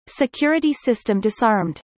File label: current off, en-US